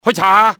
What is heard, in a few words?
check that out, cantonese, male; XBOX; enemy